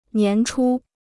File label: "年初", Chinese